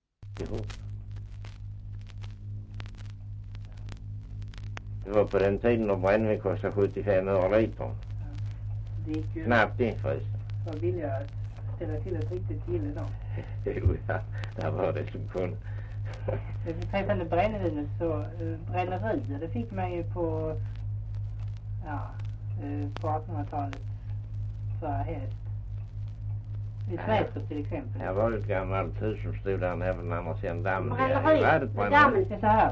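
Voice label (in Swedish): Östra Ingelstad Skåne, dialekter; Folkminnen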